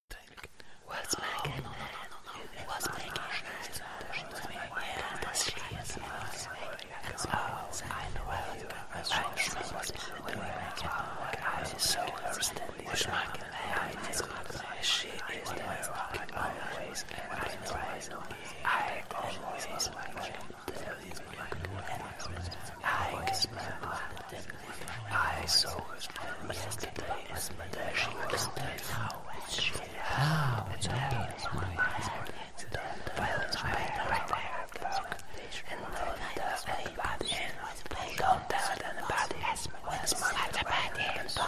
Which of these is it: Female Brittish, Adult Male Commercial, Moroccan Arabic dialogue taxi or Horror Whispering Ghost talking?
Horror Whispering Ghost talking